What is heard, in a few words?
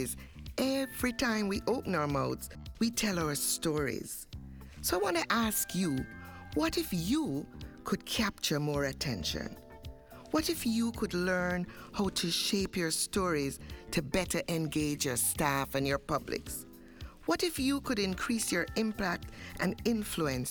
jamaican saying